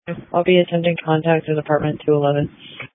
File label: Police Dispatch